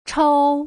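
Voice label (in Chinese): "chou", Mandarin syllable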